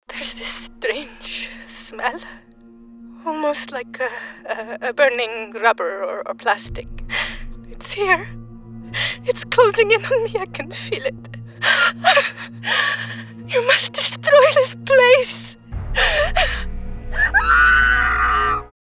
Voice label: Voiceover, Scandivian Scared Horror Scene; Game Demo